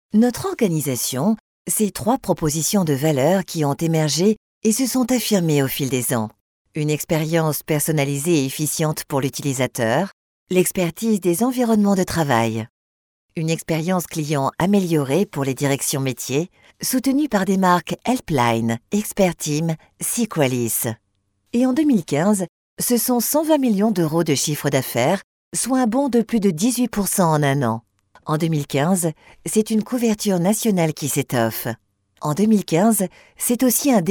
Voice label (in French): commentaires, voix off